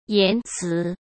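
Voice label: "言辞", Mandarin Chinese; hsk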